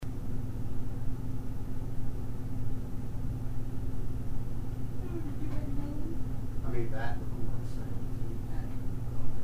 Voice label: a childs voice right before you hear an investigator say that records sounds; Denton NC